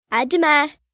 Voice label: French See You, Greetings, Foreign, 1 girl, 9 yrs., French; Talking